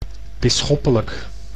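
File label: "bisschoppelijk", Dutch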